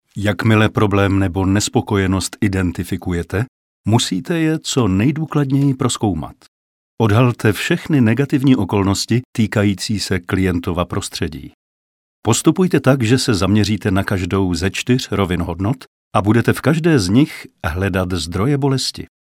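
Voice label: Czech e-learning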